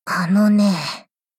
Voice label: angry